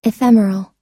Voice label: "ephemeral", US